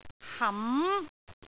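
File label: "ham2", Cantonese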